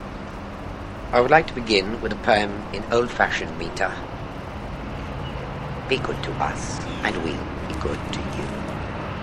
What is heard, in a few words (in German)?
Dialogue Unbearbeitet; RX3 Denoiser